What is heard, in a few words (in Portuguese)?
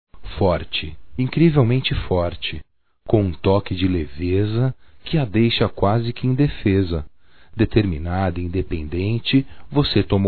DIA INTER DA MULHER, masc; NEUT